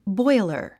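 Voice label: "boiler", English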